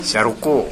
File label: "yaroko", minamiyamagata